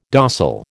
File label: "dossal", American